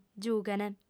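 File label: "giughent", Sardinian, female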